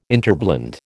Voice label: "interblend", American